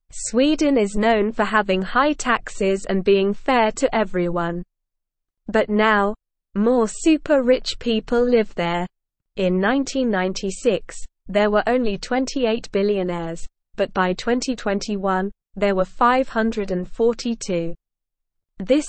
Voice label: English SLOW Reading; More Rich People in Sweden Why and How